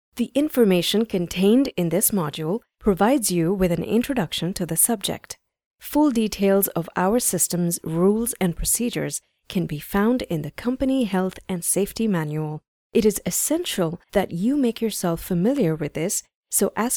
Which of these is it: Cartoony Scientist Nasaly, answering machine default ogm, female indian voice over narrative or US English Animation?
female indian voice over narrative